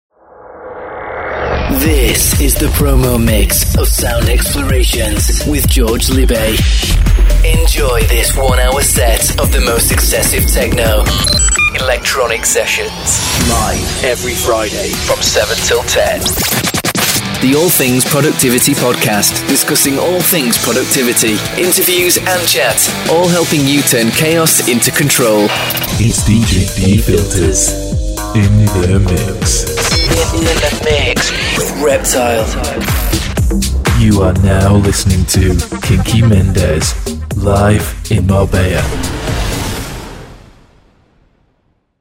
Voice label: Imaging